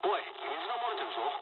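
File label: damaged water mood low, speech japanese; War Thunder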